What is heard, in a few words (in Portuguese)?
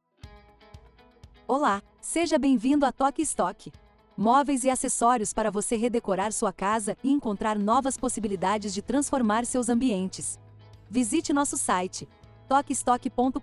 Espera Telefonica; TokSotk